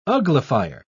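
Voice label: "uglifier", British English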